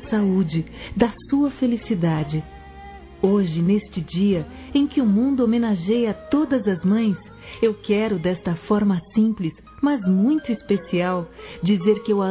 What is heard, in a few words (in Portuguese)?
MÃE VOCÊ É TUDO F; Telemensagens 2017